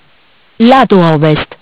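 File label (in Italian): "Lato Ovest", Italian; Bentel; BLE320; MESSAGGI VOCALI; Audio BGS220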